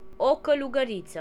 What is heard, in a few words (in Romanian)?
mantis desc, ro; insects; tuxpaint stamps; animals